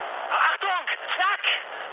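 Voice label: German damaged aa mood high, speech; War Thunder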